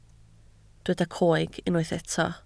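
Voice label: "coeg" Welsh, spoken word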